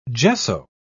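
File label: "gesso", British English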